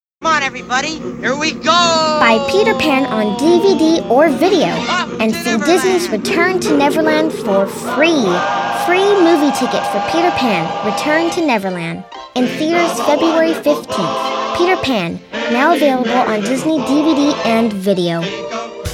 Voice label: Eng, kids

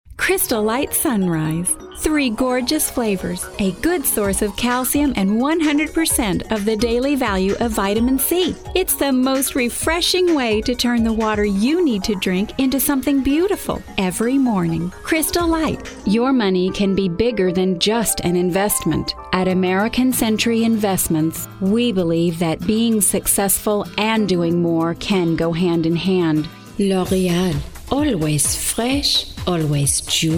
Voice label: Eng, english females

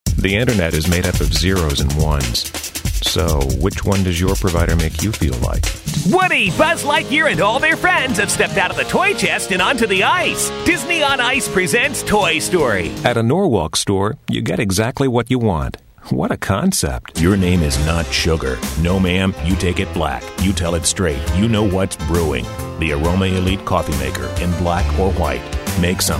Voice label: English, males

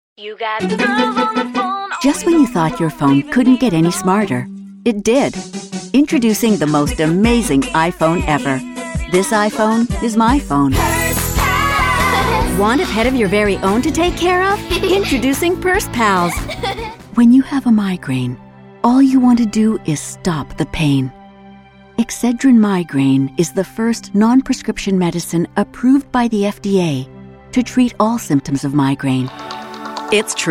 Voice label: english females

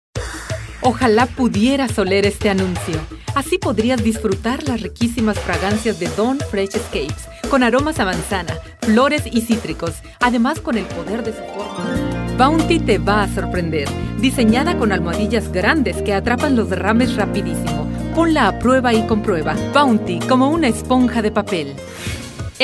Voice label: spanish females

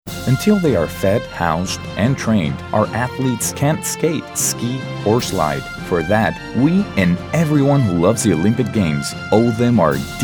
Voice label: English, english males

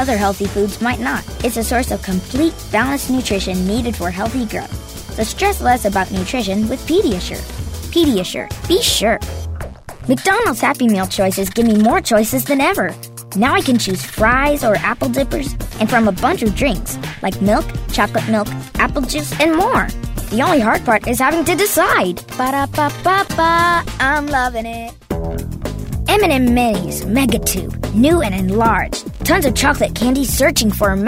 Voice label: Eng Kid, kids

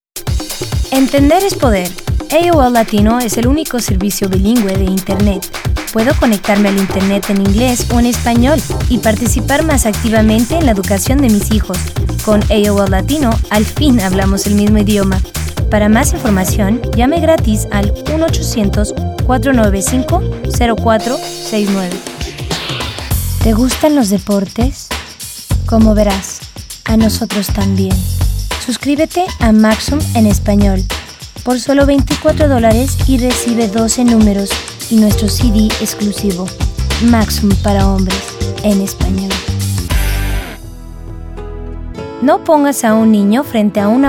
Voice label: spanish females

